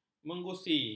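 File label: "menggusi", Malay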